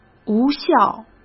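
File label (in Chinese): "无效", Mandarin Chinese; chinese-flashcards; hsk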